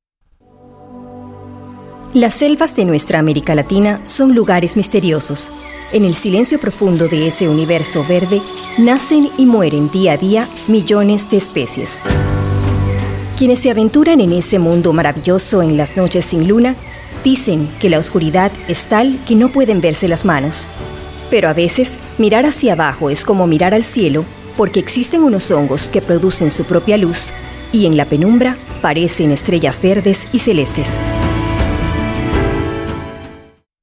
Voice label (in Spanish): narra; locutores